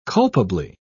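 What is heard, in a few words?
"culpably", British English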